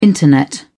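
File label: "Internet", British English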